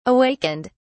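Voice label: "awakened", British English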